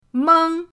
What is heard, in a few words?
"meng1", pinyin